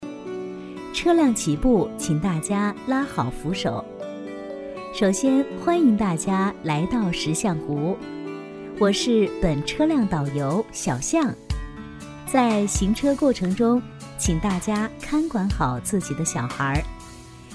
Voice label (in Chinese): 女-课件-观光旅游词语音广播